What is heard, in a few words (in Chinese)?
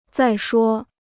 "再说", Chinese